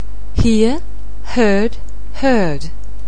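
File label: "hear", English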